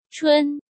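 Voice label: "Chūn", Chinese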